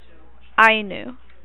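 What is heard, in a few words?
"Ainu", American, female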